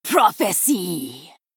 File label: Female Troll Attack